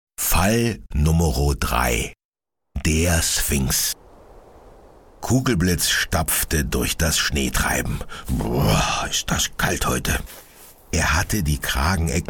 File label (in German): Kinderhoerspiel; Kommissar Kugelblitz; LOFT VOICES